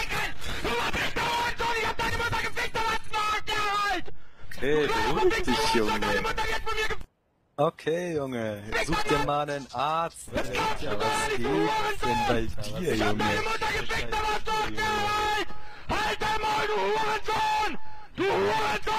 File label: angry german